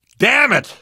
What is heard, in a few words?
reaction negative, survivor voice